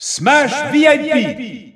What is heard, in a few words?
French Announcer; King Dedede; SSBU